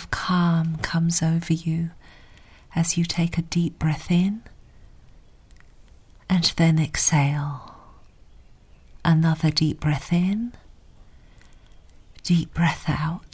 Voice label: sleep story english garden with background